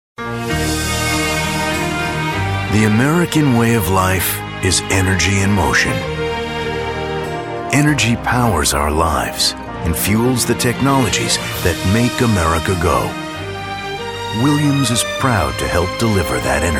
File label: Commercial